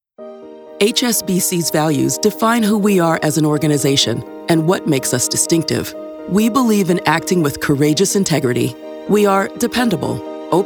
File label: corporate narration